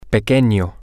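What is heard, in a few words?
small, Spanish, male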